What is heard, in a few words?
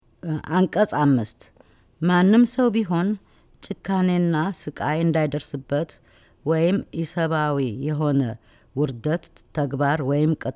Amharic